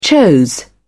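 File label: "chose", British English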